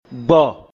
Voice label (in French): "Arriver", Mina; Gbɔ̀